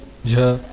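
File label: "jha", consonants of the ĐevaNāgarī script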